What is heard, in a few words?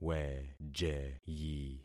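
"wejeyi", concatenated syllables; Affixing experiment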